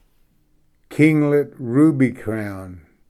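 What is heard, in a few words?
"kinglet ruby crowned", English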